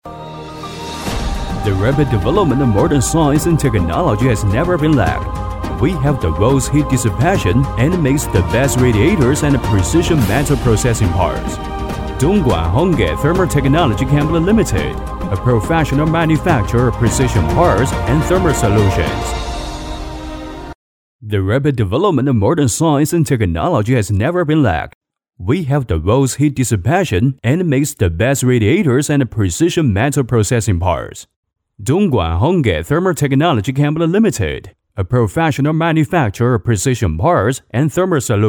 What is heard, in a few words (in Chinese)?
男英 宣传片 企业 大气; 外语; 英语; 东莞洪赞